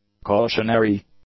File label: "cautionary", English; adjectives